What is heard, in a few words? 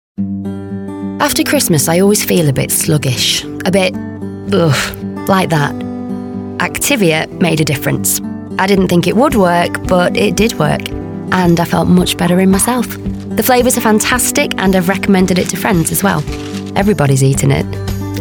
natural